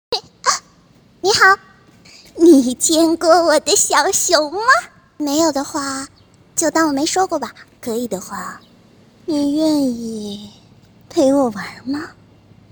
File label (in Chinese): 女性声线参考合集